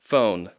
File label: "phone", English